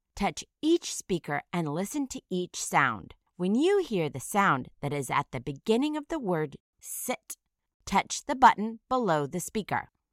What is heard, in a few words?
"sit", initial phoneme isolation